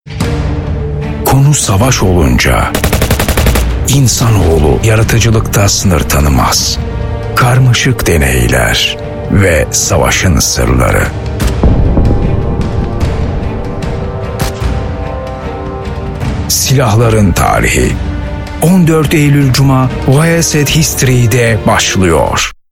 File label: Trailer